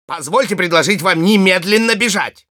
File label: taunts, ru